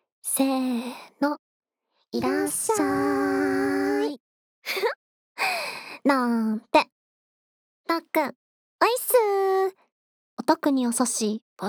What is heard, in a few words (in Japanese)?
オープニング, ASMR